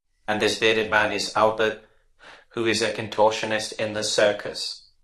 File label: Voice Acting, es